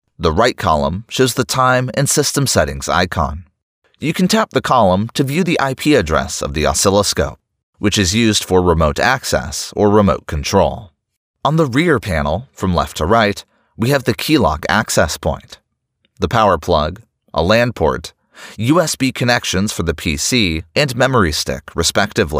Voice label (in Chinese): 美式男声 干音